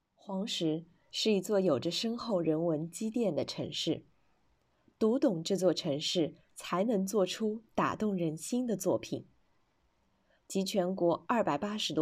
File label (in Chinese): 温婉大气